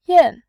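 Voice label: "yan", Mandarin Chinese, tone 4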